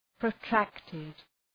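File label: "protracted", English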